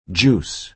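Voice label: "juice", American English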